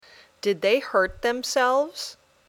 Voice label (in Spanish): Dictado